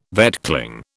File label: "vetchling", British